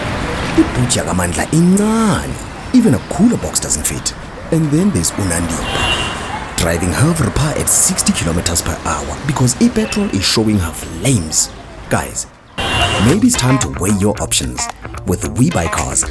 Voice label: English South African, Male